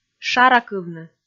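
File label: "шаракывны", Komi, female; kpv audio dict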